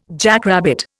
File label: "jackrabbit", Indian